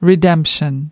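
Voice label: "redemption", American English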